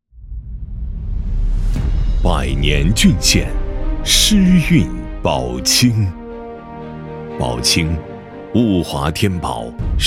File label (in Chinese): 男 宣传 抑扬顿挫; 天府之县